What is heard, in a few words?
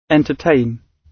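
"entertain", British English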